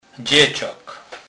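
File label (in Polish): "dzecak", Kurpie dialect, Polish; slownik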